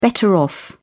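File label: "better off", British English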